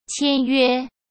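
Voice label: "签约", Mandarin Chinese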